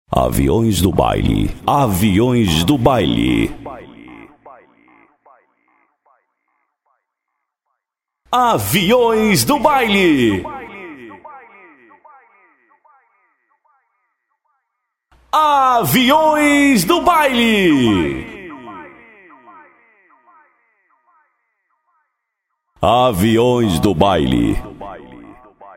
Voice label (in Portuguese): vinheta